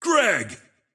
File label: intense